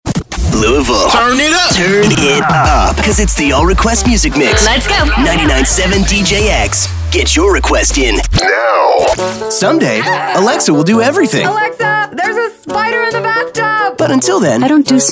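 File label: radio men contemporary hit radio; radio imaging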